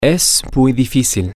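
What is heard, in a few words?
it is very difficult, spanish, male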